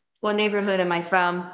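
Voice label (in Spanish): Voice Acting es F, Spanish, female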